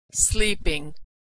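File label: "sleeping", American English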